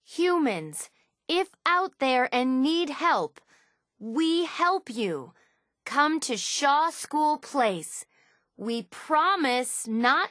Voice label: radio broadcast; fallout; Supermutant